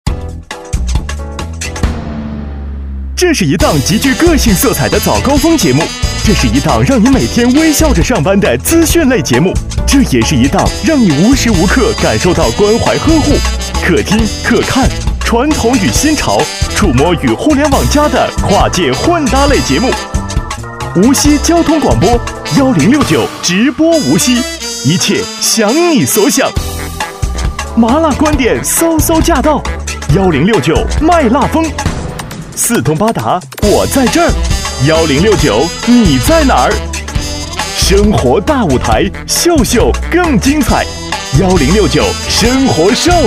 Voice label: 男, 片花, 直播; 1069直播无锡

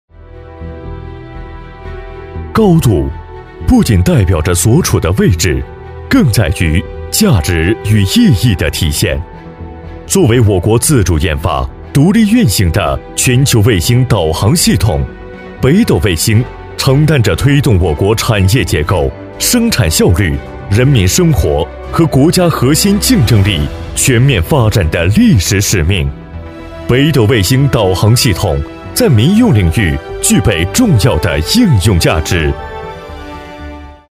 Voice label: 男95-专题-大气企业-北斗导航

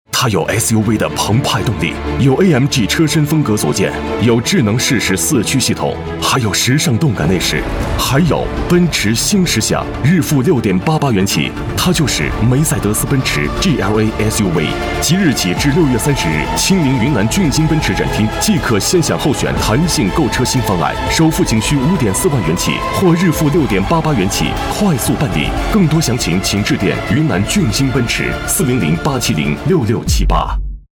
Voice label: 男 广告; 俊星奔驰

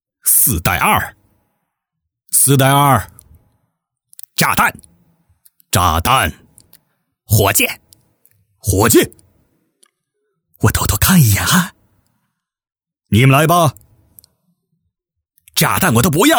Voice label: 男 角色 多角色棋牌配音

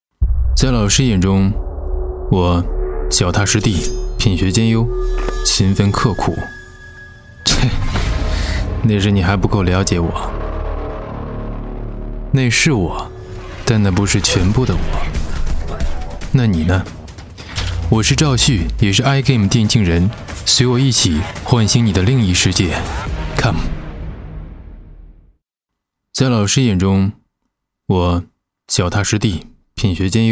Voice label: 男 旁白 年轻有态度素人独白

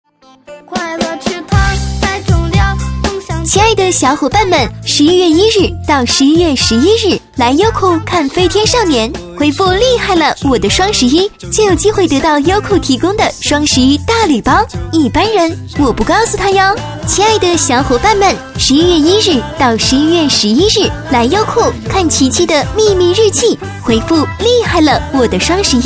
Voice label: 女 广告 青春干净; 优酷

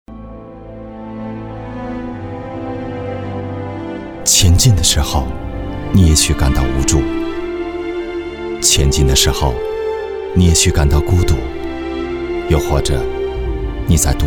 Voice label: 男, 广告; 腾讯微博

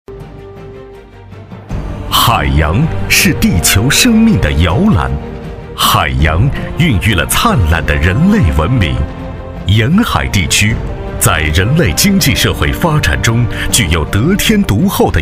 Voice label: 男 专题 恢弘 气势 沉稳; 辽宁沿海经济带

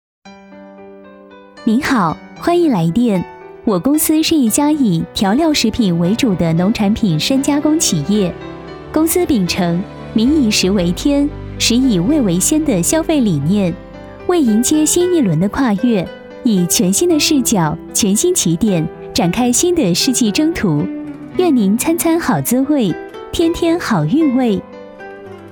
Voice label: 女4-彩铃-调料食品, 女

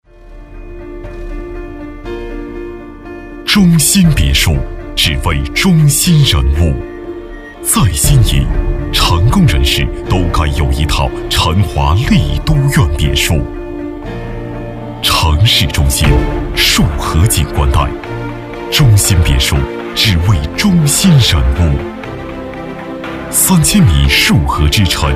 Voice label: 男 专题; 辰华别墅房产

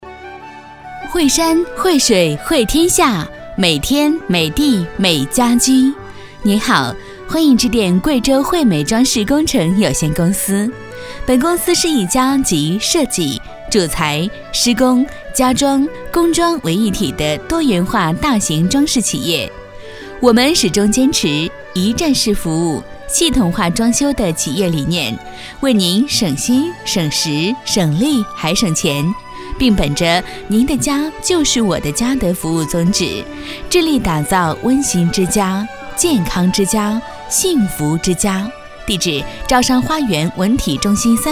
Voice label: 女 彩铃 装饰工程有限公司; 汇美装饰工程有限公司

